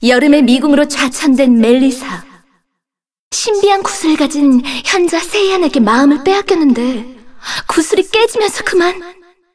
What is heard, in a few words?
cinematic voice; lineage2